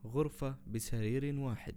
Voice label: single bed room, arabic words audio

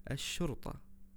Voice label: police, arabic words audio

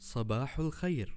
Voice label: good morning, arabic

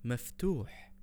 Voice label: opened, arabic words audio

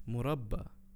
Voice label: jam, arabic words audio

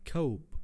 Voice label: cup, arabic words audio

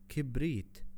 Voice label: matches, arabic words audio

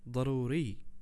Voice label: urgent, arabic words audio

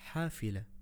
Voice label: bus, arabic words audio

